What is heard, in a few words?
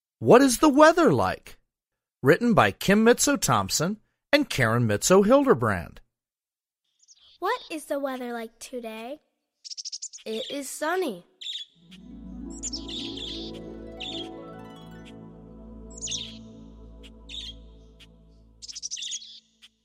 English Narration